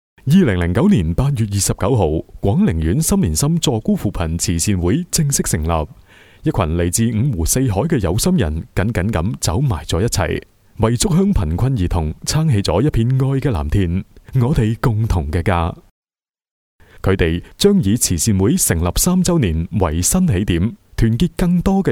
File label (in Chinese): 粤男; 广宁慈善会